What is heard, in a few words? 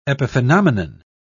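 "epiphenomenon", British English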